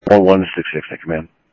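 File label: Sheriff West County, scanner audio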